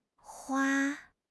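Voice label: "hua1", Mandarin Chinese; HSK3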